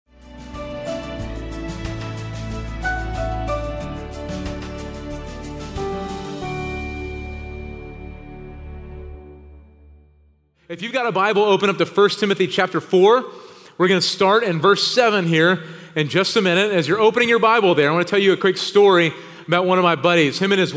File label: Sermon English